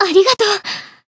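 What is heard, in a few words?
Voice healed Japanese; renri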